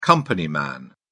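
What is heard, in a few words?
"company man", British English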